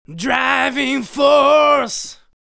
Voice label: "Driving Force", vocal phrases; Ultimate Vocals